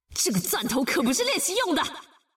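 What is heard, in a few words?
Traditional Chinese; 探奇（守望先锋2）; 终极技能; 繁体中文; 這個鑽頭可不是練習用的！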